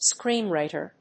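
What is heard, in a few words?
"screenwriter", English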